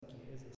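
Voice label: "Chiesa", Italian, male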